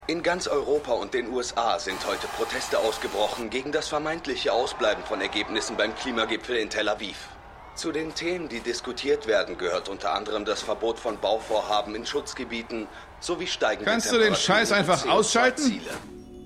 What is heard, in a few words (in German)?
Nachrichtensprecher; Extrapolations